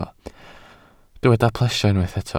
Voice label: "plesio", welsh